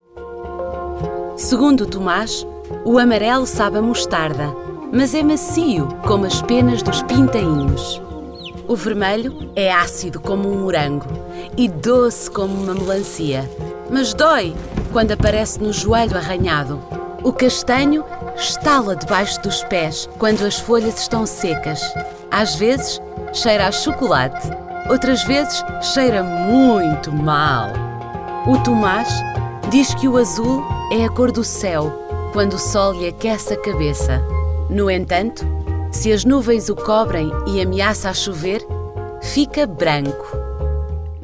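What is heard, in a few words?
Portuguese narration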